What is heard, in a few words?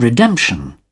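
"redemption", British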